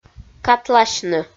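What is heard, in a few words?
"катласьны", Komi, female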